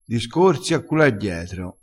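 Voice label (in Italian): "discorsi a cul", dialettale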